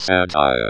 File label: robot, Voice drops